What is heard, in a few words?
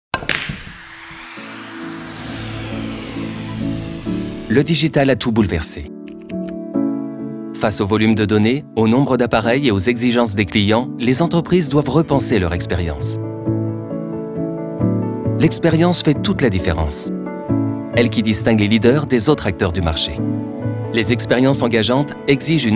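Corporate with friendly tone; ADOBE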